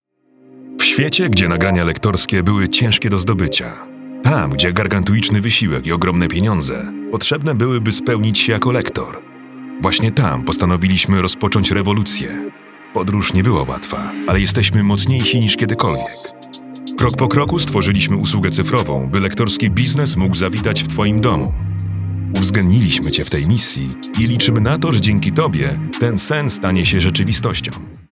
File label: Polish promos; Ein professioneller polnischer Sprecher mit langjähriger Erfahrung in landesweiten Medien